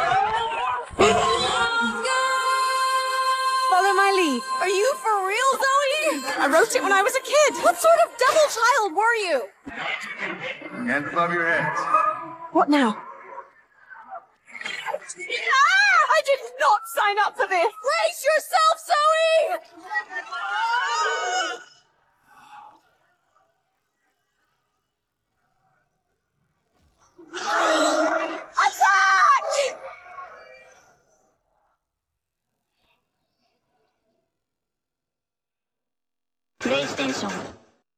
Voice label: Story Trailer vocals; split fiction; ps5 games